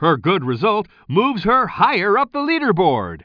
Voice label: commentator